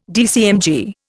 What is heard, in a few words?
"DCMG", Indian